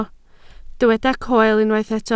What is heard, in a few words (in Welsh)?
"coel", Welsh